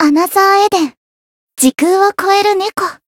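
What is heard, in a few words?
Voice title, Japanese; yuna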